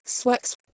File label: "sweks" Late PIE